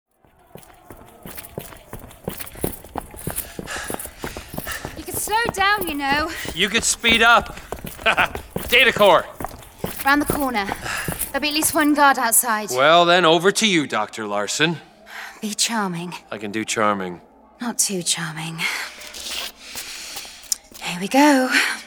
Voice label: female English Welsh soft Welsh accent audio narration; torchwood